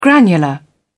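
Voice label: "granular", English, British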